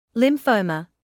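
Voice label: "lymphoma", British English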